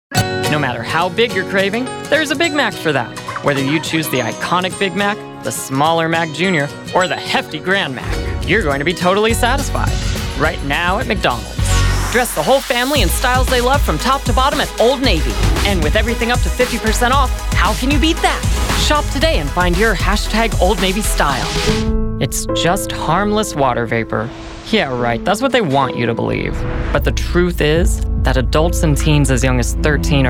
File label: commercial